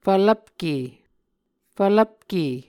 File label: "fvlvpke", muscogee